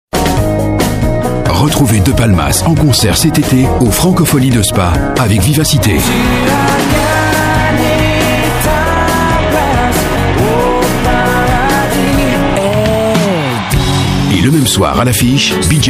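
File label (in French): Belgian French, male, commercial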